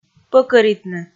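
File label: "пӧкӧритны", Komi, female; kpv audio dict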